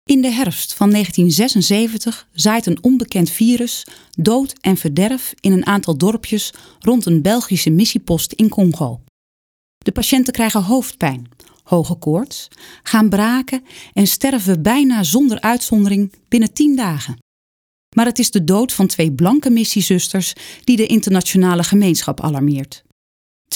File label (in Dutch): Docu